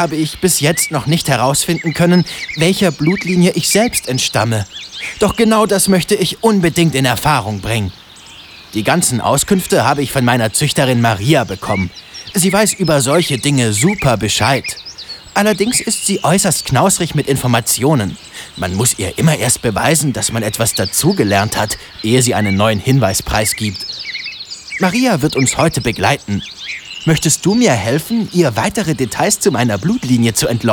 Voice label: DEUTSCH MALE Audioguide Haflinger Erlebniswanderweg; LOFT VOICES